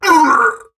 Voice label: Pain Heavy; Warframe; Dax Heavy; Battle Barks